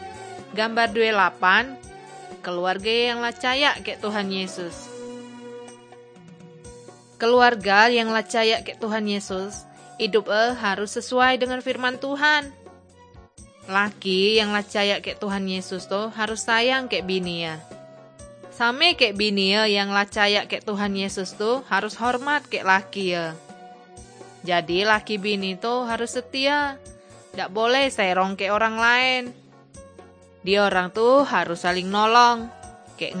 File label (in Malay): kabar baik good news malay bangka